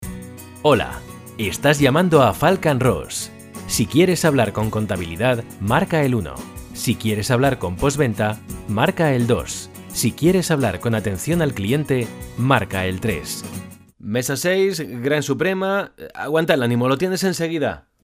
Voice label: locutor spanish voiceover